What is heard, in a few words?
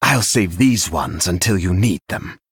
Male Dragon After Freezing